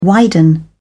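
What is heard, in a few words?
"widen", British English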